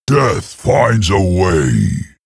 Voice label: Male Tauren Attack